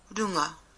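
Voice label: "runga", Māori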